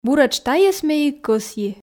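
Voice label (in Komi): буретш тайӧс ме и кӧсйи, Komi, female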